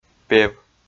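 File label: "пев", Komi, male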